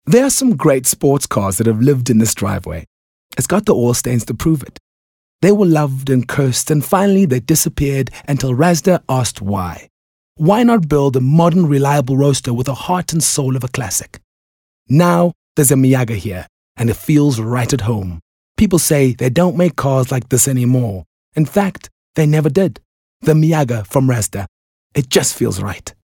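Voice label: English Conversational South African Neutral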